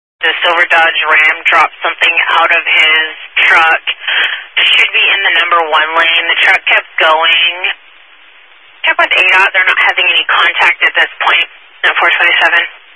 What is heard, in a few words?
Highway Patrol, scanner